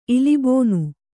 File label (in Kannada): "ಇಲಿಬೋನು", Kannada